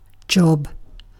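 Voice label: "job", British English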